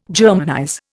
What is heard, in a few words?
"Germanize", Indian